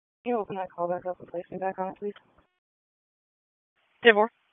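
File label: Police Northeast District Dispatch, Raleigh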